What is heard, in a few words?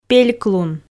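"пельклун", Komi, female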